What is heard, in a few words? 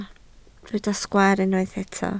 "sgwaar", Welsh